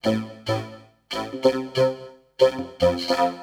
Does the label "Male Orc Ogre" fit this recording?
No